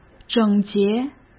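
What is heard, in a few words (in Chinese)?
"整洁", Mandarin Chinese; chinese-flashcards; hsk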